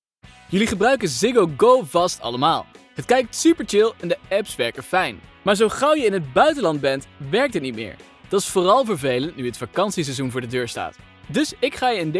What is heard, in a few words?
dutch e-learning